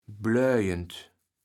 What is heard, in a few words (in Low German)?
"bleujend", kaevels platt